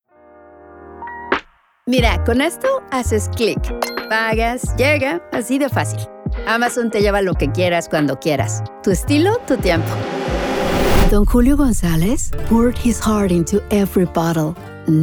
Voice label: Bilingual Commercial

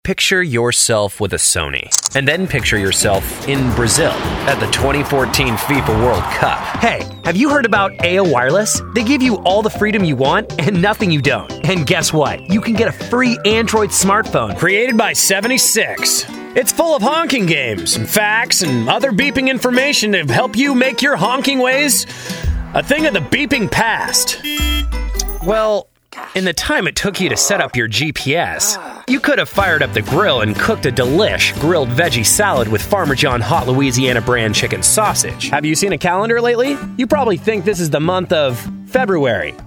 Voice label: COMMERCIAL

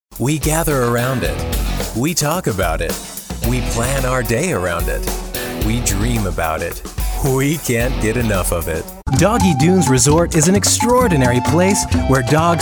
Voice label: Commercial

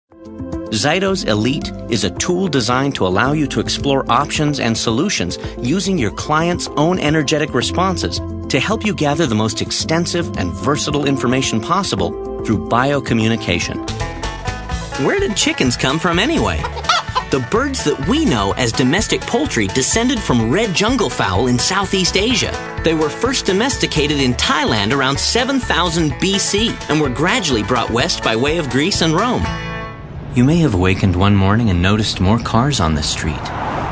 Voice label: narr

